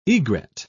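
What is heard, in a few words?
"egret", British English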